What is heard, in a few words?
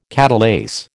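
"catalase", American English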